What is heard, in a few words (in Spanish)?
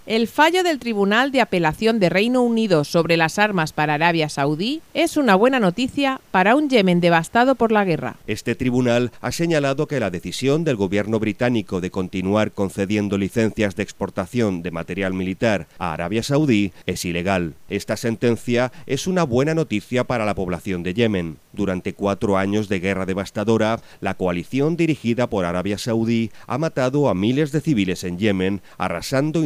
informativo; yemen apelacion reino unido venta armas a arabia saudi